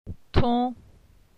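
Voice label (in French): "Ton", French; phonetique; la syllabe on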